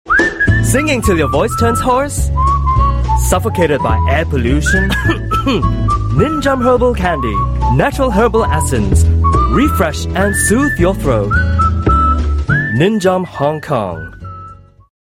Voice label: Asian English TVC; Ninjiom